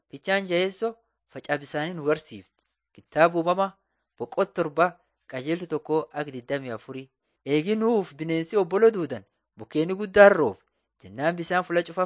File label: borana sakuye; laali chaqasi and jiradin 1 waaqan yayabadi beginning with god